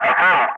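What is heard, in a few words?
"affirm", English; Ka-50; Wingman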